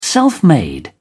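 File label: "self made", British English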